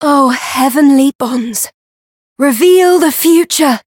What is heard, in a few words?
Voice variable chant; radica